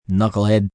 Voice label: Knucklehead, Attitude, male: 'knucklehead', (human voice speaking); Talking Sound Effects; Sound Effects:Voice; VoiceBox